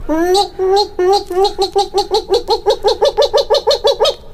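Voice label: nik nik nik niknikniknikniknikniknikniknik, gibberish; Voice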